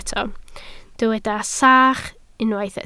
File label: "sach", Welsh